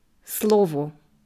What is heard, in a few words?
"Slowo", ukrainische Aussprache